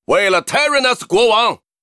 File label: captain war cry, zh